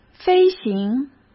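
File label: "飞行", Mandarin Chinese; chinese flashcards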